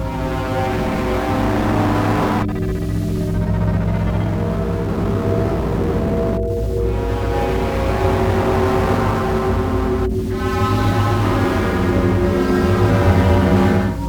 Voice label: gibberish loop; svencoop